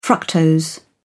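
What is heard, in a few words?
"fructose", British English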